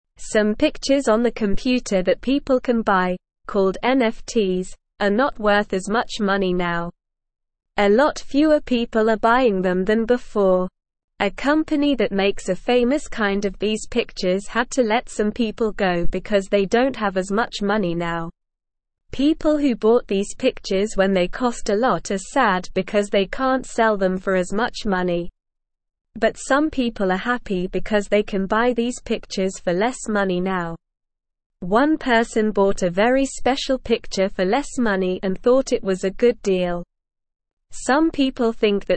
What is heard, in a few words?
English Beginner SLOW Reading; Computer Pictures Called NFTs Not Worth Much